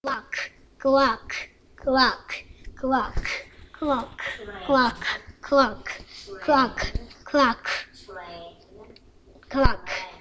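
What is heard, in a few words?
"clock", spoken word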